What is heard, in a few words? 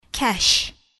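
"cash", English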